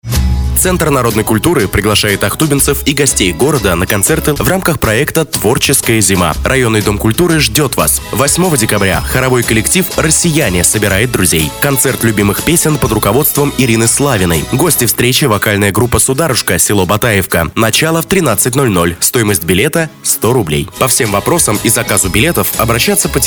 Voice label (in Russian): Reklama